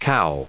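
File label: "cow", English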